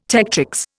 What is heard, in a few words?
"tectrix", Indian